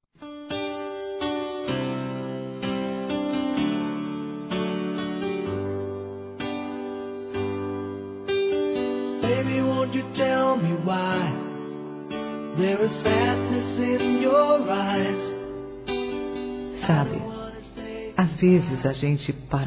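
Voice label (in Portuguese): ROMANTICA SUAVE, Feminino; Telemensagens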